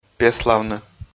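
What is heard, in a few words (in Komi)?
"пеславны", Komi, male; audio dict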